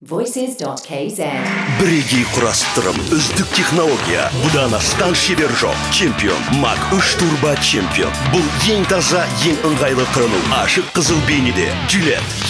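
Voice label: kazakh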